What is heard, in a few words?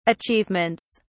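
"achievements", British English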